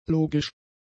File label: "logisch", German; adjectives